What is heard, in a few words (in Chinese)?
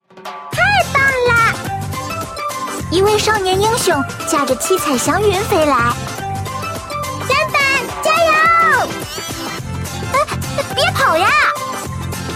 nv luolizhengtai